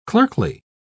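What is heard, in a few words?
"clerkly", British English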